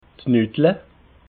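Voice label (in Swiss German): "nudle", Alsatian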